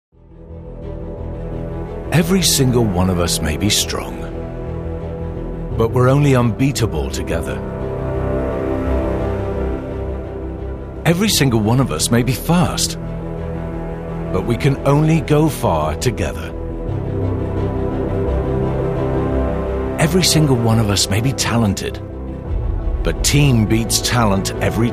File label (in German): Eng UK Freundlich; Baywa